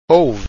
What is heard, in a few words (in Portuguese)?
"houve", Portuguese